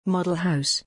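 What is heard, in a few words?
"model House", English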